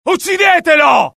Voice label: alert, dialogue IT; mohaa; attack